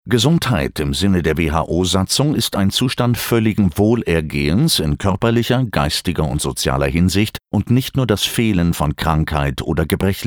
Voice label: elearning medical; PROVADIS